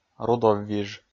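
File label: "рудоввиж", Komi, male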